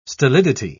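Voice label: "stolidity", English, British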